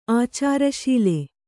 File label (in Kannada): "ಆಚಾರಶೀಲ", Kannada